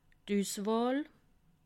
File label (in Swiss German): "uswahl", alsace